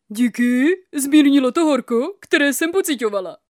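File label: female Czech drama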